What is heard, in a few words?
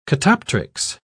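"catoptrics", British English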